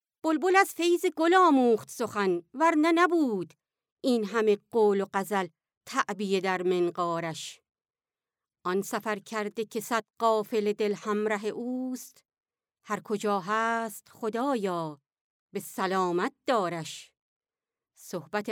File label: female farsi voice over narrative